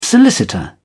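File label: "solicitor", English, British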